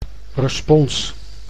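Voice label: "respons", Dutch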